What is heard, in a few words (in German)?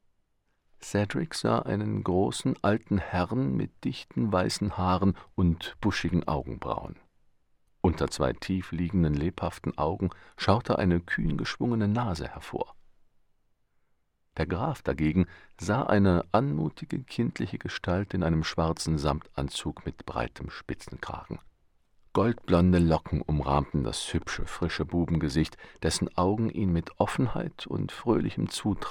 de horbuch, deutsch maenner; sprecher